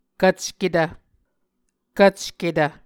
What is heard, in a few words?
"kvcketv", Muscogee